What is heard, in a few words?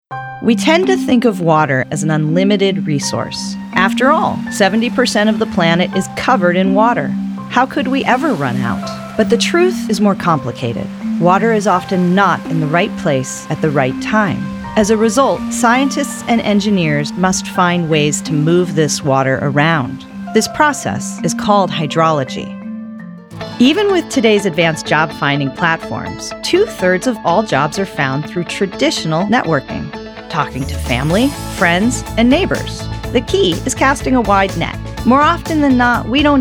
Voice Over Narration; Seattle